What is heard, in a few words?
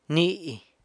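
"ni", foot, San Lucas Quiaviní Zapotec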